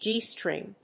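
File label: "G-string", English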